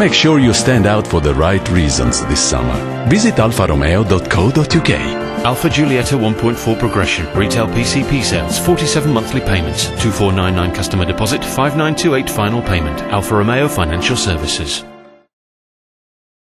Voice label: male italian english with italian accent commercial; voices; alfa romeo giulietta